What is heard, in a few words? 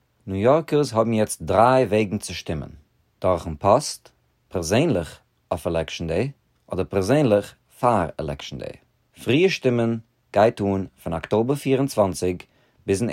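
Yiddish PSA, voice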